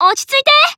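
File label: Voice Emo Inspire Team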